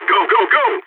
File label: radio lets go, balkan; vo